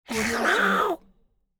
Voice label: cartoon duck says hello